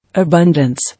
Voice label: "Abundance", English